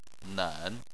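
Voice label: "nen", Chinese, third tone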